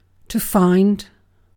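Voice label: "find", British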